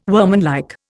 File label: "workmanlike", Indian